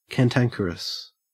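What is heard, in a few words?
"cantankerous" English, Australian accent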